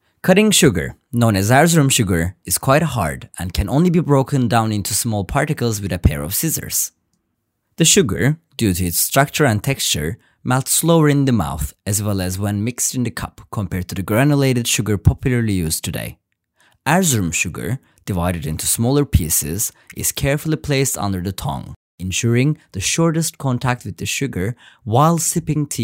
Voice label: english